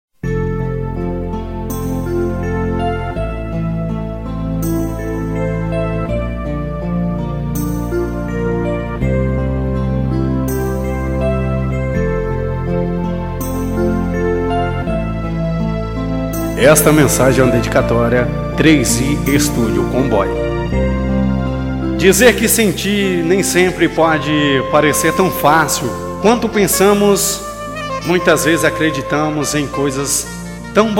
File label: msg locutor